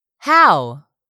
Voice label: "HOW", english; 3000 words